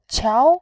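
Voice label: "qiao", Mandarin, tone 2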